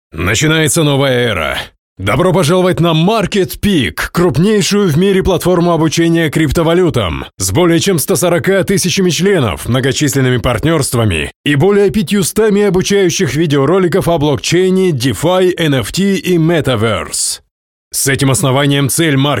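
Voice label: Russian Male Corporate Authoritarian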